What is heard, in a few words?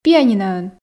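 "пианиноӧн", Komi, female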